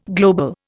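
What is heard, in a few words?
"globe", Indian; Dictionary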